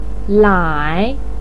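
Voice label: "lai", Mandarin Chinese, third tone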